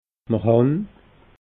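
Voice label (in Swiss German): "mr han", Alsatian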